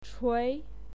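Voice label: "chui", Mandarin Chinese, second tone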